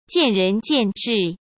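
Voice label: "见仁见智", Mandarin Chinese; chinese-flashcards; hsk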